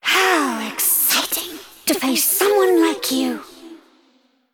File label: Female Celestial Play